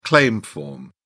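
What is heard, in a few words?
"claim form", British English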